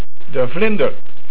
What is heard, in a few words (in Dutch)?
"Vlinder", Dutch